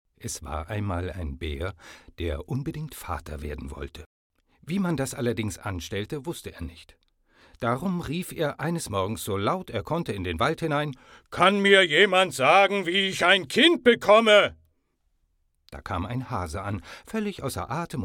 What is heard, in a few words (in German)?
Trickstimmen; Märchen